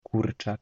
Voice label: "chicken", polish

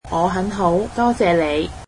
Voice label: cantonese Im good thank you

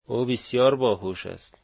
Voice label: dari she is very intelligent

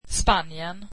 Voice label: swedish Spain

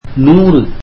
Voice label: tamil one hundred

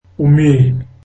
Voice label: zulu husband

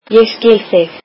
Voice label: icelandic I understand you

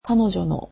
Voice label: "her", japanese

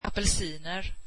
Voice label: swedish oranges

